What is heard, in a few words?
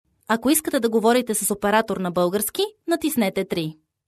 Bulgarian female prompt; voice over